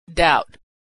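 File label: "doubt", American English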